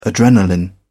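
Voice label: "adrenalin", British English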